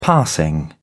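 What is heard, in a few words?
"passing", British English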